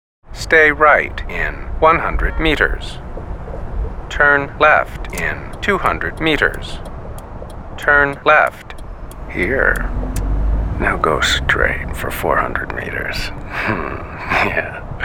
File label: Male GPS; autoTRADER